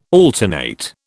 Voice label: "alternate", British